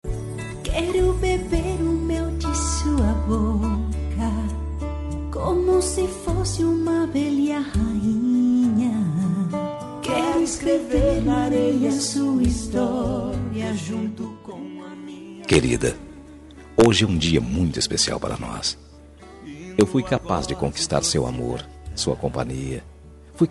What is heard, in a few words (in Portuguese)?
esposa, voz masculina; dia dos namorados para esposa voz masculina